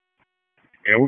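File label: FIRE Operations, p25; wake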